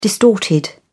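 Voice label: "distorted", British English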